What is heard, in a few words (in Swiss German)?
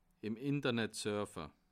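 "surfe", Bas Rhin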